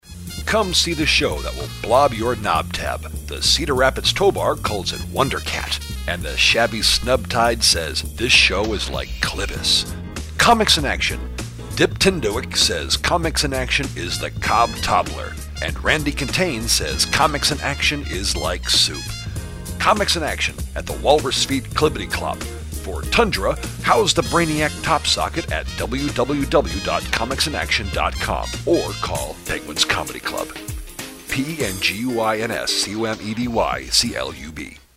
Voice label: jibberish